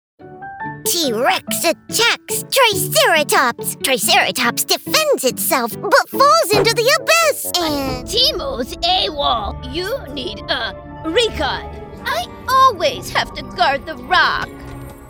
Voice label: Animation W Singing